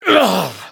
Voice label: hit fr; cookierunwiki